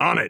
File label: affirmative, heavy; vo; Counter Strike Model Pack; CS:GO; phoenix coop